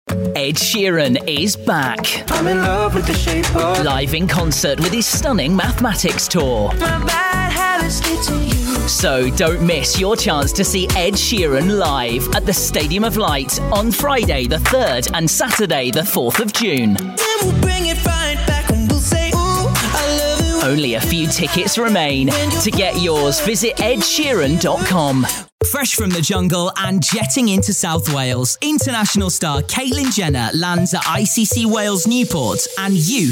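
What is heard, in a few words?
commercials, male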